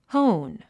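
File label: "hone", English; 発音 hóun ホウン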